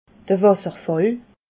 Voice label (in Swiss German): "wasserfall", alsace